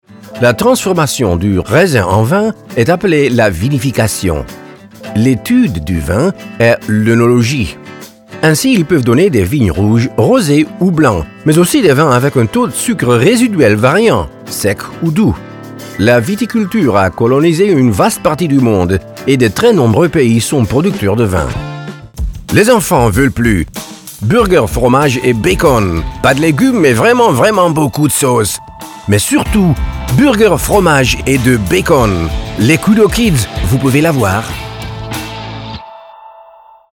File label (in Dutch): frans